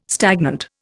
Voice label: "stagnant", Indian